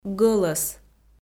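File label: "гӧлӧс", Komi, female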